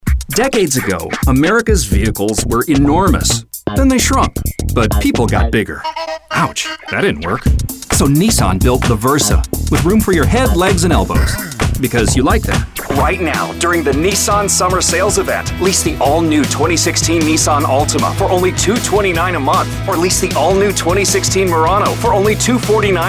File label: English American